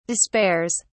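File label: "despairs", British English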